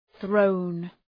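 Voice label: "thrown", English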